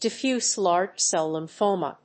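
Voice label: "Diffuse Large-Cell Lymphoma", English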